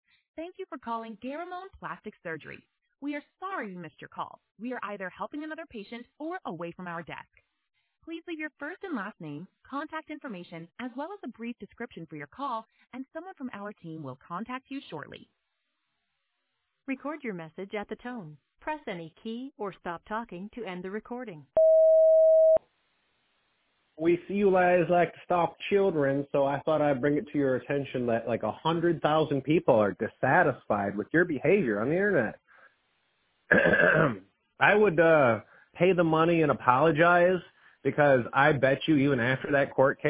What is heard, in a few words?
SOUTHERN ACCENT; caught in the stitch